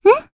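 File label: voice, Japanese; Cassandra; kingsraid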